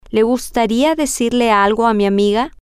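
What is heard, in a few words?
would you like to tell something to my friend, Spanish, female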